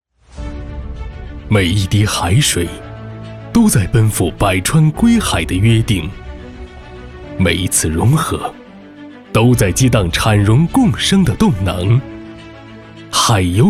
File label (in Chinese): 宣传片; 中海石油